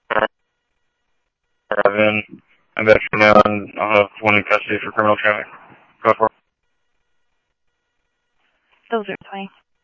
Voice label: Interop Mutual Aid, scanner; H-Scottsdale; G6 Interop